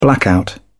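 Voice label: "blackout", British English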